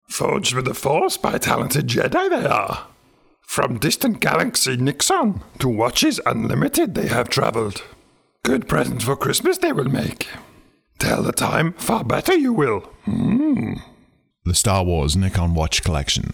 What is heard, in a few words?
Impression for Radio Commercial; Yoda